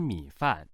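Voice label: "mi3 fan4", Chinese, male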